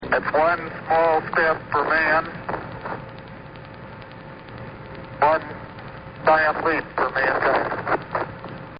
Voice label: Astronaut One Small Step For Man, Radio Dispatch; Radio Dispatches